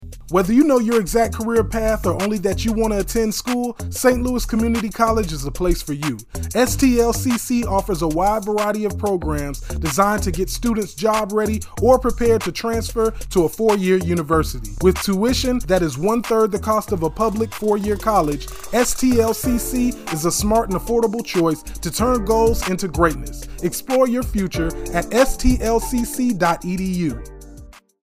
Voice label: radio commercial young male